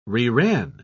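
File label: "reran", British English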